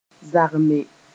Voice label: "zagme", moore

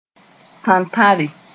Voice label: "tãntãre", moore; fish sp

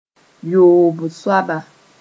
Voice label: "yoob soaba", moore

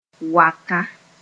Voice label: "waka", Mooré; ajar

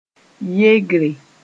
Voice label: "yegre", moore

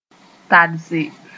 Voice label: "tadse", moore

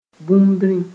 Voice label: "bũmbri", moore

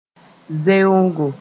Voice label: "zeongo", moore

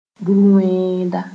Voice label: "bur-wẽeda", moore

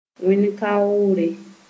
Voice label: "wẽn-kaoore", Moore

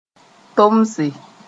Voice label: "tomse", moore

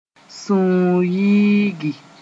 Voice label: "sũur yĩigi", Moore; become angry

